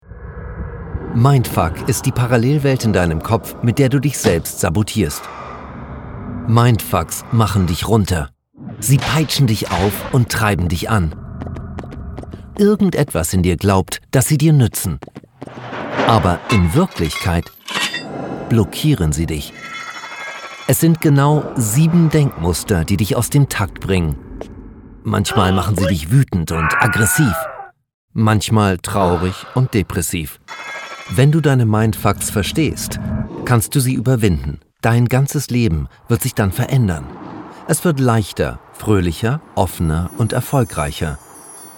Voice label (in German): Werbung Lebendig